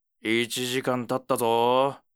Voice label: alarm, ASMR